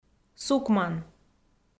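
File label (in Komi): "сукман", Komi, female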